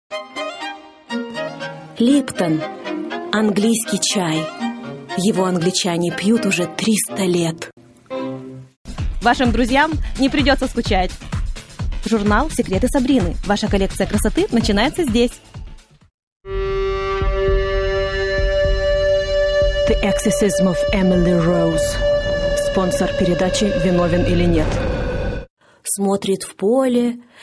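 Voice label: Russian, General; GoLocalise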